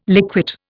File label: "liquate", Indian